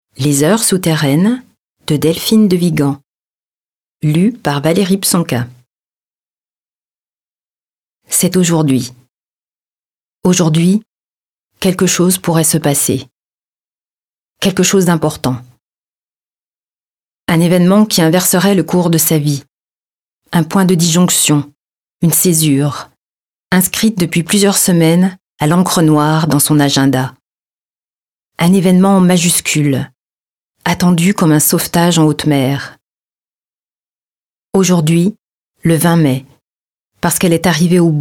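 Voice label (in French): LIVRE AUDIO, Voix off; Les heures souterraines - Delphine de Vigan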